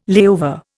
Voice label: "layover", Indian